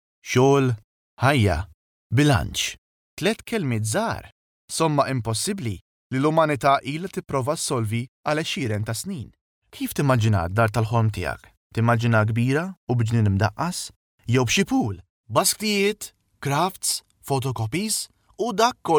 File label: maltese voiceover locutor; Maltese male voice over